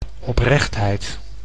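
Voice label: "oprechtheid", Dutch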